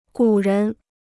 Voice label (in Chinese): "古人", Chinese